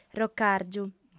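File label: "procàrgiu", Sardinian, female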